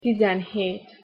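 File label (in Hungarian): "tizenhét", Hungarian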